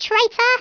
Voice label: "traitor", English